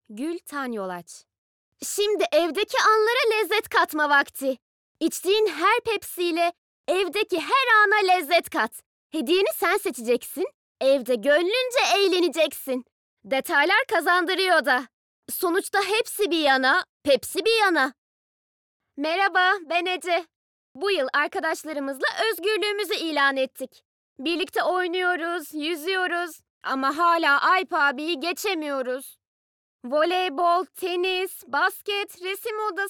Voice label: Reklam

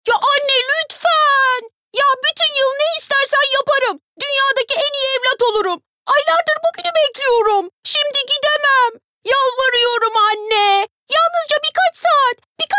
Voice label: Animasyon

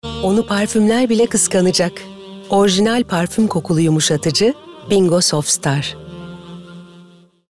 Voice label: Sicak Sakin

